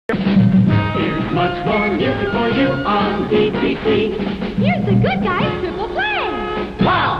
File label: sweeper, much more music; triple play